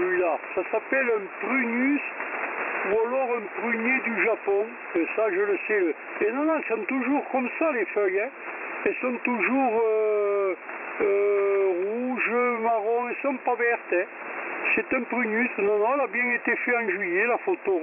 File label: French Speaker